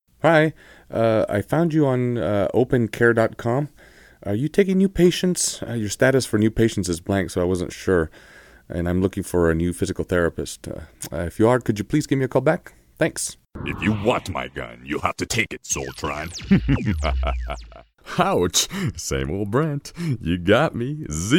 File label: English Characters, Male voices